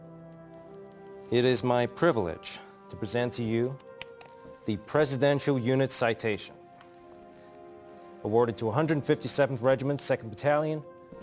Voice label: character accent

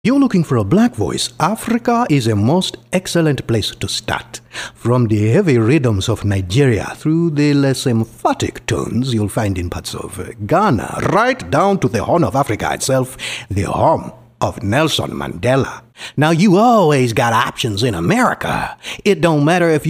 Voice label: accents

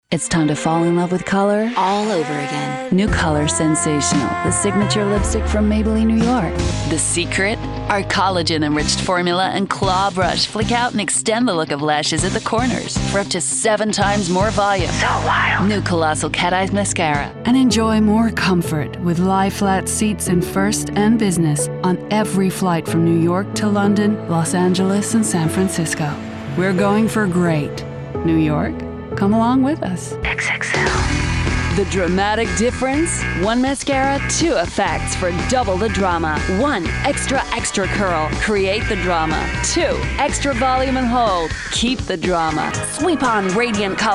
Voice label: us straight reel

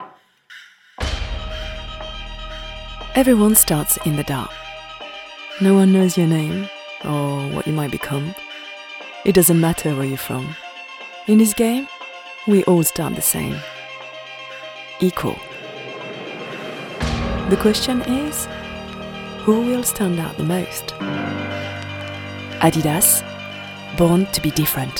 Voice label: European English ad; ADIDAS

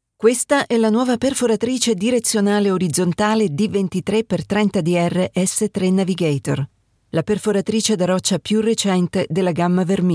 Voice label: italian corporate

